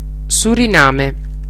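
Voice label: "suriname", Italian; geography; gcompris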